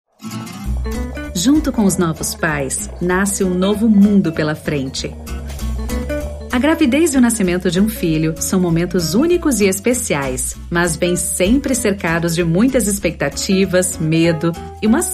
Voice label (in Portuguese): Carinhosa; Amil Primeiros Cuidados